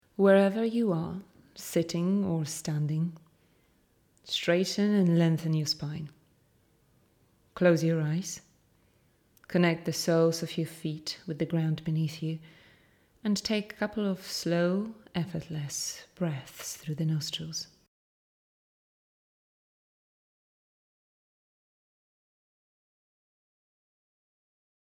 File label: Meditation